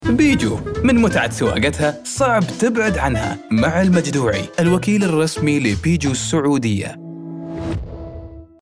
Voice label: Saudi; Peugeot KSA